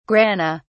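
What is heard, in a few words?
"grana", English, British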